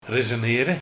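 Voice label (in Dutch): "Rezenere", dialect; Keuvelen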